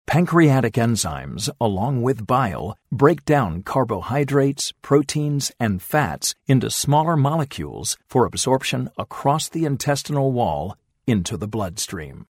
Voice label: Warm guide; Mechanism of Action